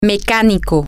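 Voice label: Spanish, female; mechanic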